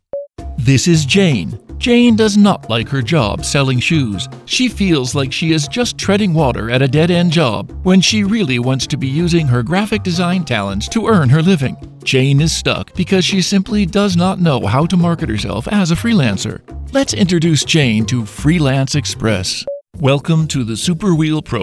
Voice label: explainer eng

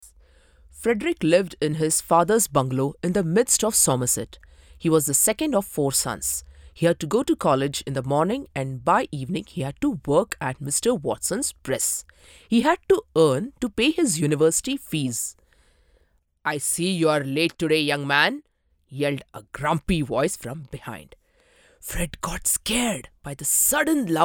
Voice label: narration english

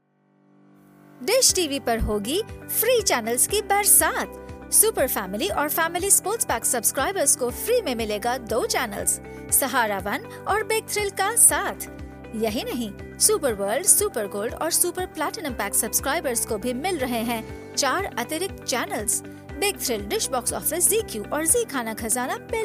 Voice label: hindi TVC; DishTV

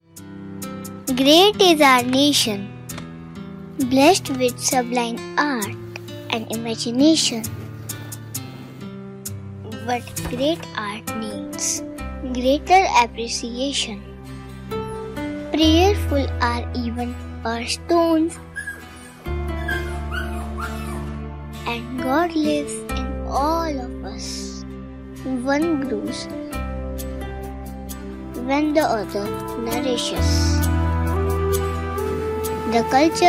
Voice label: english

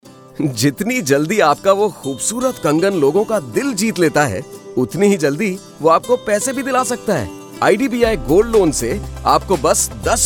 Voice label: Radio, Hindi; IDBI Gold Loan

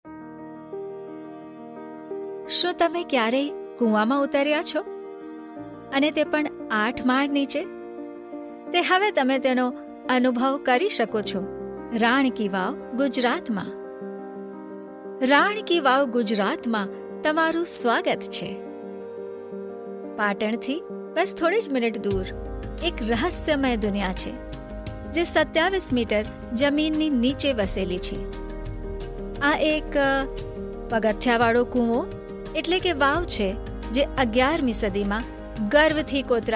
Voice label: Gujarati; rankivav

